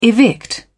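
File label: "evict", British English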